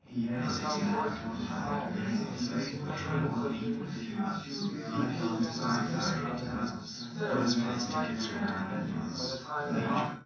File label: male4 380ms 50cm, underdetermined; SiSEC11